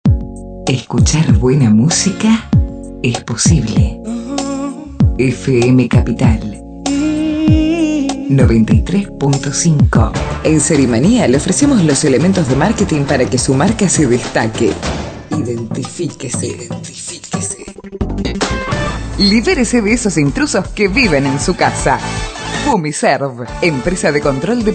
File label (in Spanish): locucion